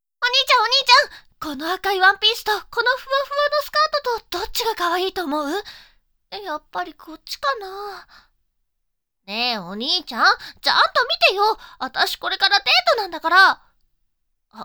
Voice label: voice imouto